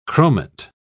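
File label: "chromate", British English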